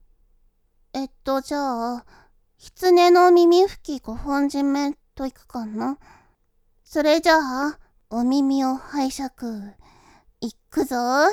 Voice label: ASMR, 耳ふき; 耳ふき5本締め; 初見版

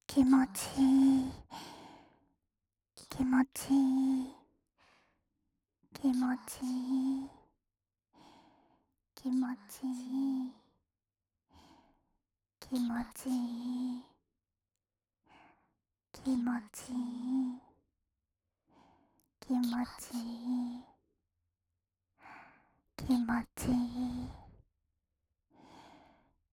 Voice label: おまけ 囁き

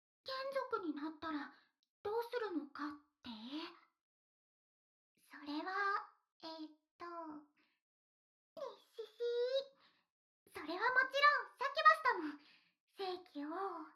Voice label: 耳かきするよ, ASMR

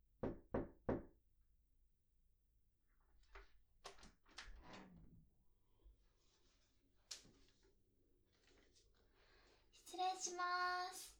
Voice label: 入室 バイノーラル

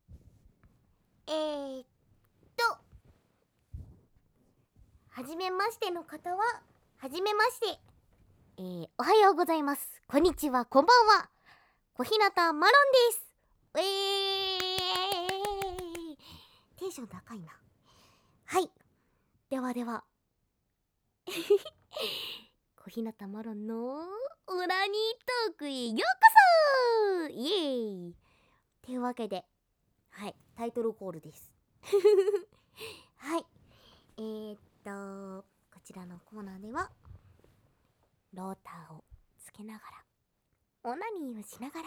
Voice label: 自己紹介 ステレオ

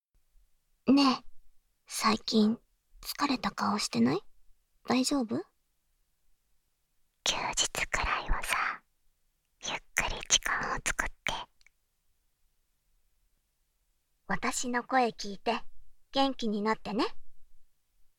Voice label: 応援ボイス

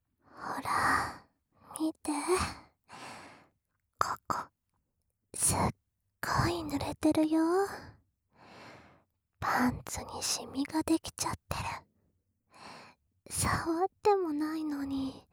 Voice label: くちゅ音なし, ASMR

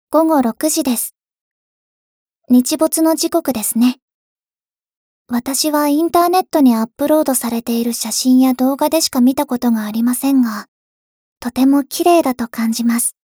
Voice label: 時報ボイス 電脳少女; ボイスコレクション 電脳少女編

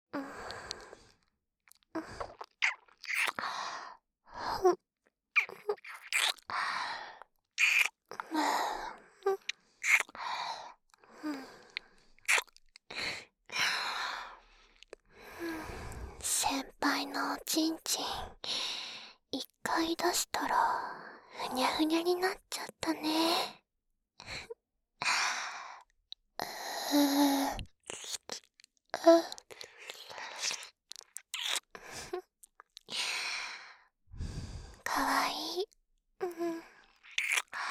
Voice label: 左 声のみ

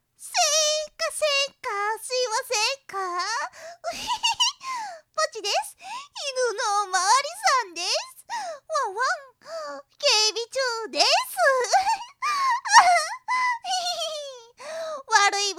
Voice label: うざ可愛テンション; 熊本取り締まり; 殴りたくなるほど、ウザ可愛い犬のおまわりさん

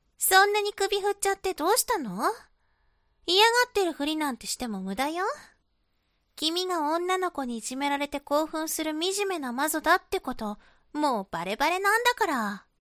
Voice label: 懲罰中音声, ASMR

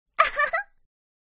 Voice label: 懲罰中音声, ASMR

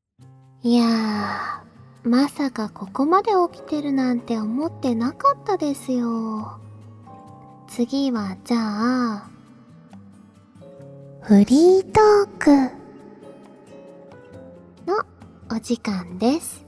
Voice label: フリートーク, ASMR

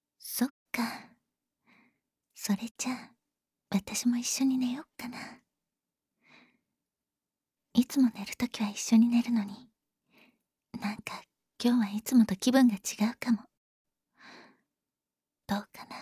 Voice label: 添い寝, ASMR; 未編集

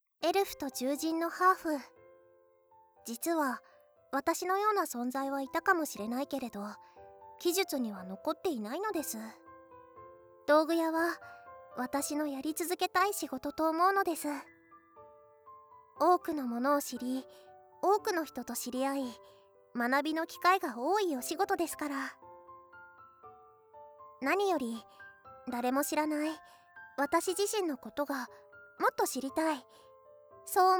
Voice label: 添い寝; リーサ

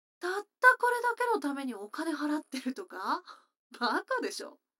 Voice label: 女の子 台詞

